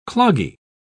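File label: "cloggy", British English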